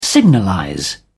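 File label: "signalize", English, British English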